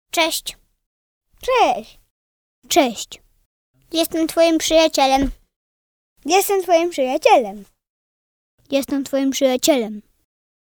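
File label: Polish child 8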